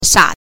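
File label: "sha", Mandarin Chinese syllable, neutral tone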